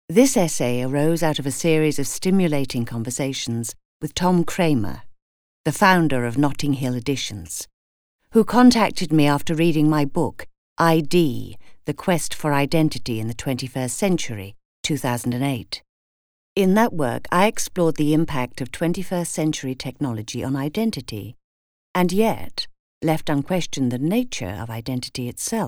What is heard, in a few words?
Non fiction, Australian; you and me